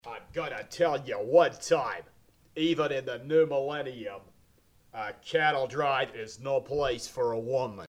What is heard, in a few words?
John Wayne Imitation